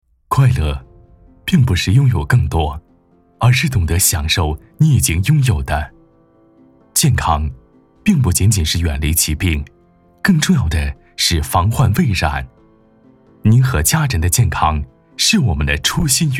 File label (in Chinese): B男 宣传片 温情舒缓